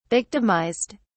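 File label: "victimized", British English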